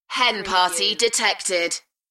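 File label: British female Hen party detected; beanstalk audio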